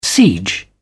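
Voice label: "siege", British English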